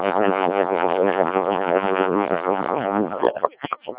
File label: decoded speech, 7177KHz